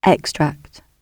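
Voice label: "extract", British English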